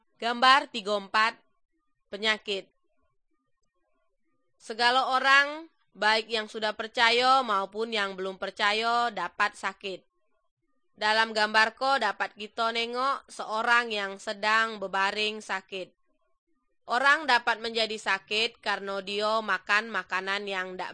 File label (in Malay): good news malay jambi